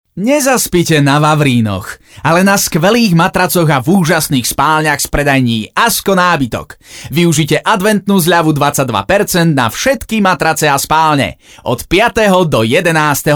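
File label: Slovak, male, commercial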